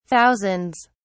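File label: "thousands", British English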